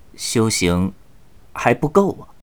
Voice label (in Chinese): 撤退, BattleCry, 年轻的修士; ycrpg